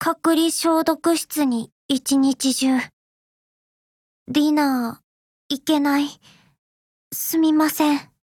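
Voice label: ALLHALLOWS, Japanese; zion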